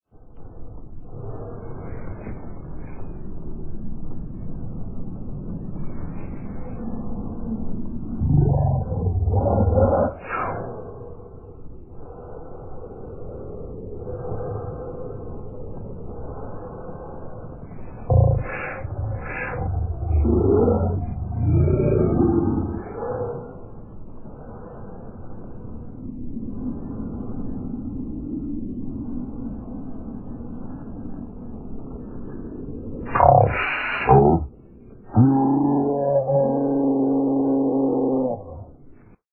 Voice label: disappointed